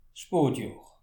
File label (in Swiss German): "spotjohr", alsace